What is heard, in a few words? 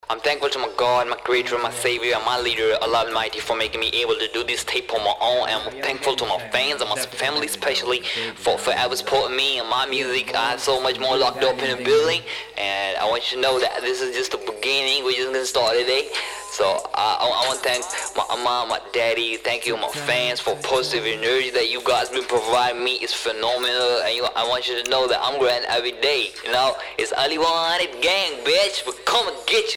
Speaks (Studio Ambience)